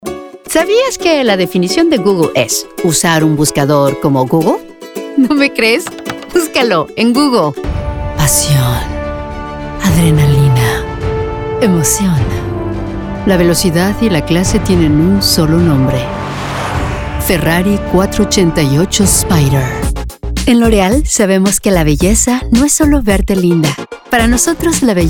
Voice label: Comercial

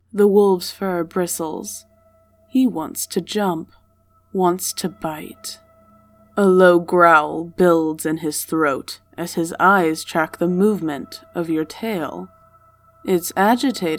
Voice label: Video Narration

